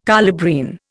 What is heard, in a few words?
"colubrine", Indian